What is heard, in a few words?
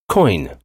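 "coin", English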